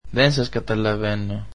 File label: greek I dont understand you